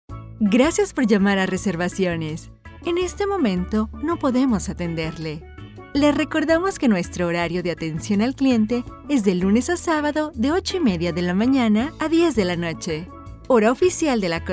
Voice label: IVR

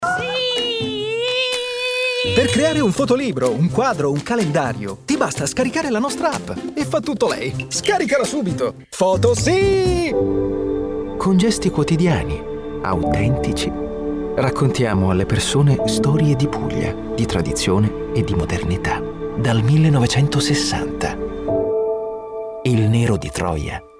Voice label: commercial TV

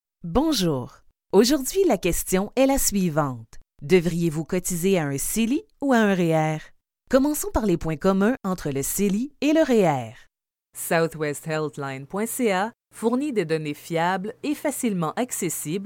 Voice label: Elearnings; Spanish (Mexican)

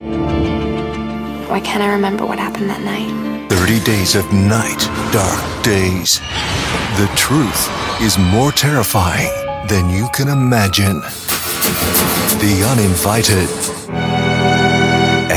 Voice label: Trailer MONTAGE; Amex

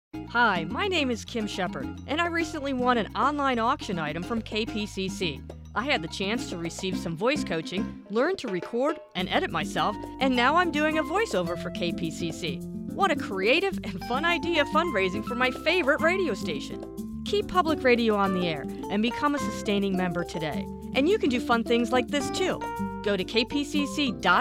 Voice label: Testimonial

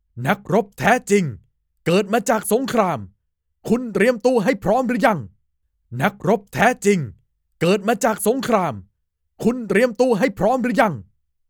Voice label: Movie Trailer

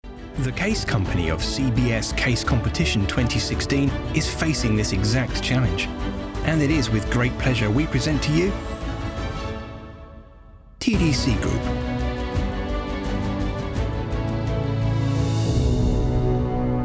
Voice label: Corporate and Documentary Reel

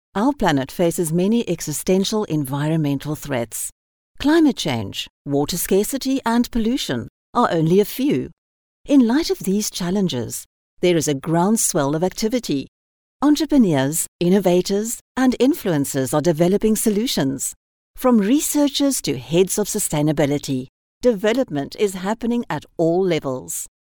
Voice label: Corporate English

